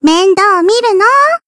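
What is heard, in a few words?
Voice heal, Japanese; lele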